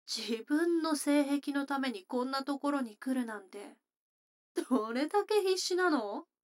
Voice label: 女の子 台詞

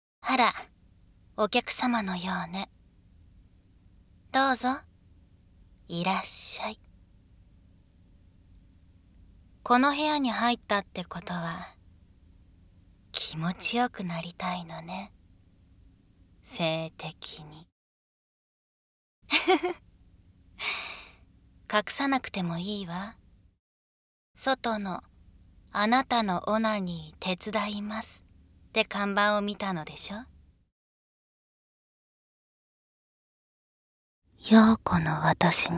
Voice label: 声のみ_ノイズ除去なし; ナナ狐に射精を縛られるオナサポ初級（中級編）; ダミーヘッド; 効果音なし

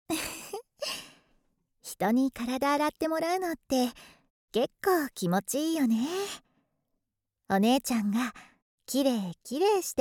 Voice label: おふろでいちゃいちゃ, ASMR; 簡易モノラル 効果音なし

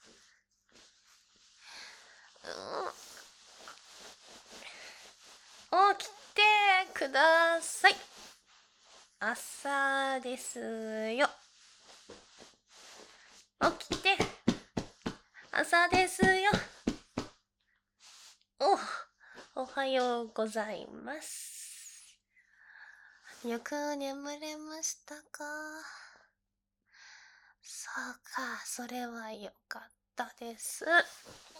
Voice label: ボイスドラマ, バイノーラル, ASMR; 「翌日」

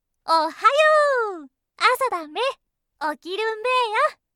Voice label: "おはよう", 上州

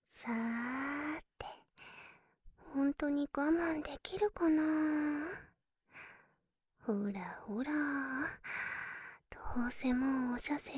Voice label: 効果音なし 耳舐め ささやき 乳首責め キス 手コキ オナニー指示; 負け犬ルート

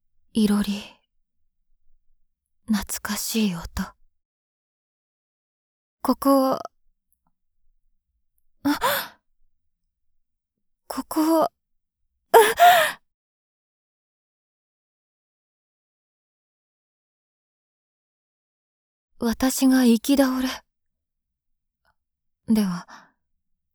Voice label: 声のみ, ASMR; 効果音なしver

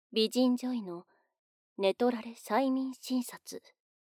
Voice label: タイトルコール

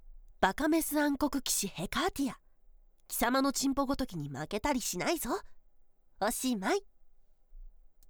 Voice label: タイトルコール; バカメス暗黒騎士音声版

